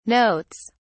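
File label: "notes", British English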